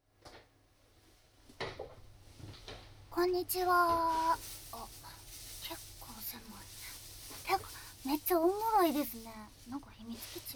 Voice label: 囁き, ASMR; nonSE; 漫画喫茶; 液体音無し

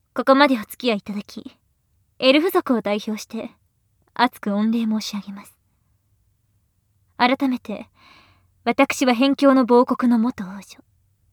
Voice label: (SE無) (アナウンス); 清楚ビッチエルフ姫のメガ盛りマントロフルコース

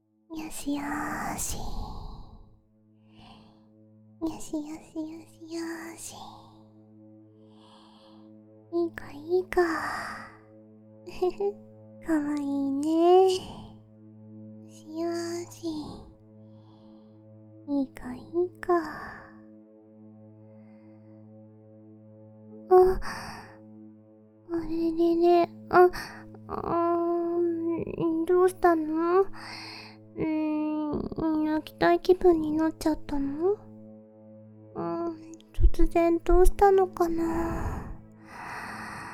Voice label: ママのお耳マッサージヒーリングミュージック赤ちゃんになろう; 添い寝メイドカノジョ

